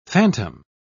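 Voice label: "fantom", British English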